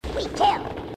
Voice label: we killed, grunts